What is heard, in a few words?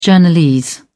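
"journalese", British English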